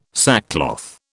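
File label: "sackcloth", British English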